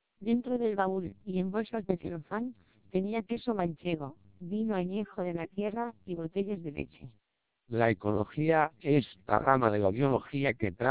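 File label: speech, Spanish, 600 bps TWELP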